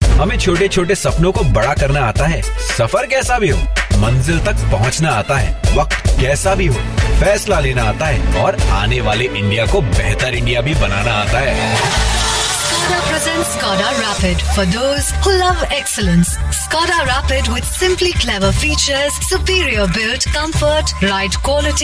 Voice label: Indian English, female; Skoda